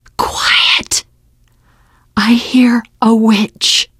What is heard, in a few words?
heard witch, teengirl; voice; source engine; left 4 dead 2; survivor